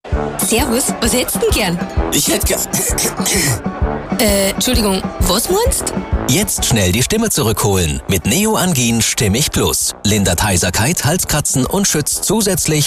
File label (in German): Sprecher werbung; halskratzen